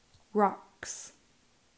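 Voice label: "Rocks", English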